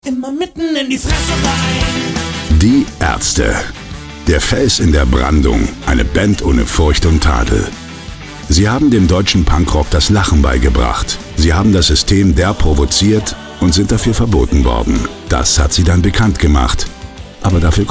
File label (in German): Doku